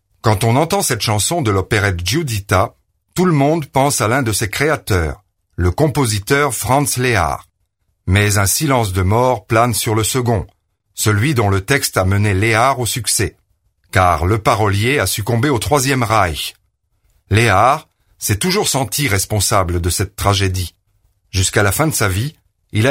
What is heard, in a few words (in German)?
Frankreich M